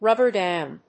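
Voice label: "rubber dam", English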